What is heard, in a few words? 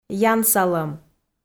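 "янсалӧм", Komi, female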